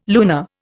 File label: "lunar", Indian